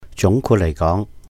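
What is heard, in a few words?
"júng kwut làih góng", Cantonese; generally speaking; 總括嚟講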